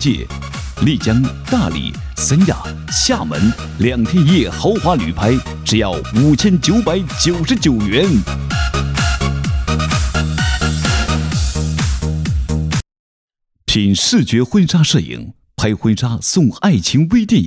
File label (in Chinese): 男, 促销, 婚纱